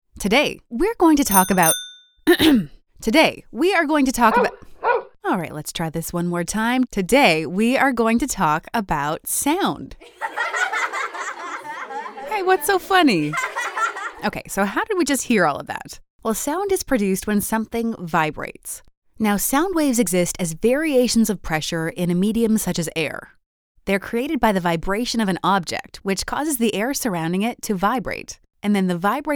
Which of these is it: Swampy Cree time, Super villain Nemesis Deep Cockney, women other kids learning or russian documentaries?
women other kids learning